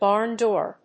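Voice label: "barn-door", English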